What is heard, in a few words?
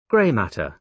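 "grey matter", British English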